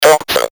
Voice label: "doctor", voices